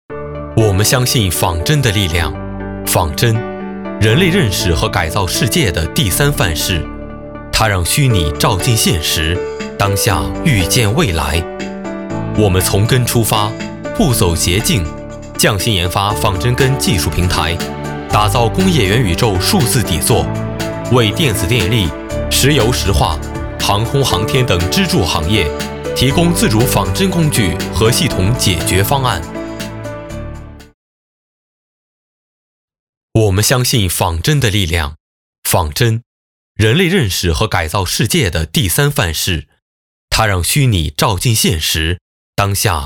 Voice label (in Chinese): 男 宣传片 科技 仿真